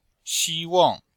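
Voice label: "xi1wang4", Chinese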